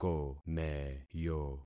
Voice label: "komeyo", concat syllables; Affixing experiment

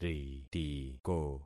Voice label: "ritiko", concat syllables; Affixing experiment

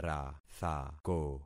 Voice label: "rathako", concat syllables; Affixing experiment

